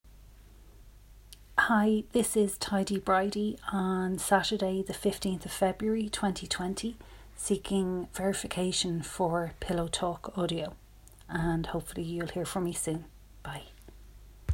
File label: F, Irish Accent